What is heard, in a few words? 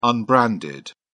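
"unbranded", British English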